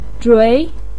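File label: "zhui" second tone, Mandarin Chinese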